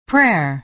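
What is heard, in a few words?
"prayer", American English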